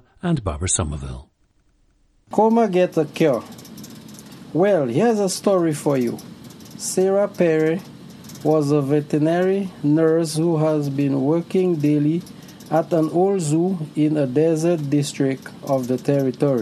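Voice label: dominica, dialects of English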